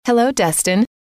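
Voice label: "hello", female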